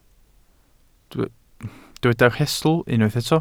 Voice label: "rhestl", Welsh